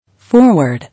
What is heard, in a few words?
"Foreword", English